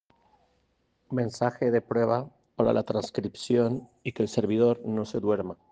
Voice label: Voice message